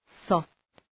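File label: "soft", English; {sɔ:ft}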